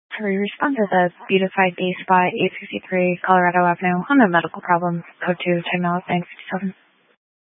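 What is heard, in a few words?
Fire Dispatch, scanner audio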